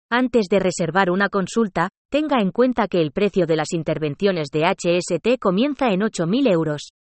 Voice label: Vocal spanish